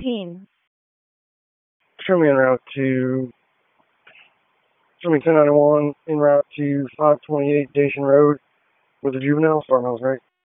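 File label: Raleigh Police Southeast District Dispatch, p25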